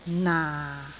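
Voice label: "naa4", Cantonese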